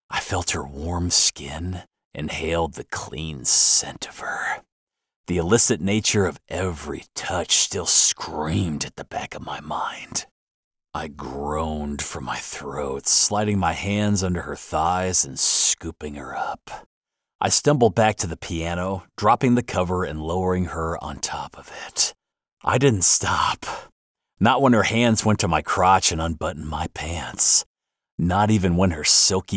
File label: Fiction Romance, Spicy